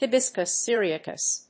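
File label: "Hibiscus syriacus", English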